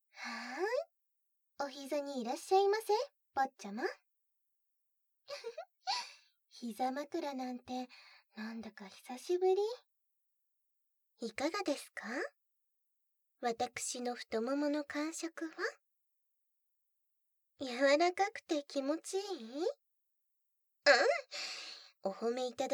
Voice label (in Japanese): 耳かきしましょうね♪